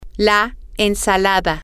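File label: the salad, Spanish, female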